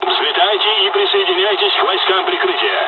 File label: takeoff, dialogs russian; midway jpn; War Thunder